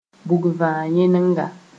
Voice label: "bugvã-yẽnga", moore

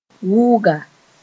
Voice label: "wʋnga", moore; deaf person

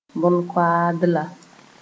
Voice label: "bõn-koaadla", moore

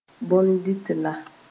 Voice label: "bon dɩtla", moore; edible thing

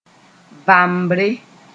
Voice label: "vambre", moore

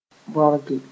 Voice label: "bõrge", moore

